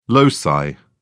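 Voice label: "loci", English, British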